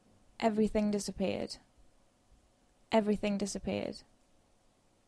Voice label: Dictation; phonology